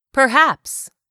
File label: "perhaps", english; 3000 words